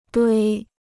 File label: "堆", Chinese